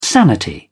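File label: "sanity", British English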